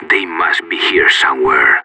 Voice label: radio bot query, separatist; Counter Strike; CS:GO

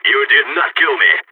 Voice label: last man standing, balkan; vo

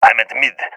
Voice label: at mid, balkan; vo; balkan epic

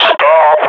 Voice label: near panic; Half Life Model Pack

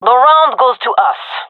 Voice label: round won, gendarmerie fem; vo

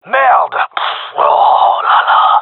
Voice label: round losing, gendarmerie male; vo

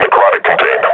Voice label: announce kill zombie, combine; vo; grunt; Half Life Model Pack

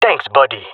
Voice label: thankful, jungle male; agents

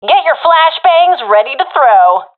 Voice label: request flash, professional fem; vo; agents; Source Model Packs; Counter Strike Model Pack; CS2

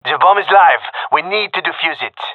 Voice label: hear bomb, gendarmerie male

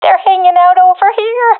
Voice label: sees enemy, professional fem; vo; agents; Source Model Packs; Counter Strike Model Pack; CS2

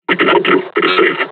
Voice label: taunt, combine charger; Half Life Alyx

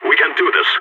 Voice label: pep talk, balkan; vo

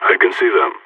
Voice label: radio enemy spotted; Counter Strike; CS:GO; phoenix

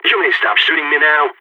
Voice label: friendly fire, balkan

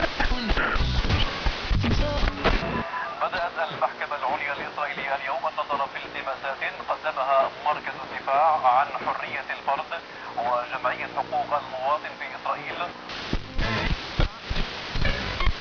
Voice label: arabic radio, ambient chatter; Counter Strike Model Pack; CS:S

